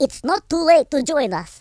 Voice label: excellent, Russki